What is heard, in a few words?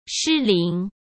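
"失灵", Mandarin Chinese; chinese flashcards; hsk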